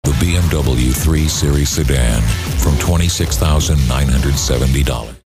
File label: raspy